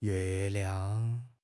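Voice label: "yue4 liang5", Chinese; HSK; HSK3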